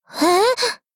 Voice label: shock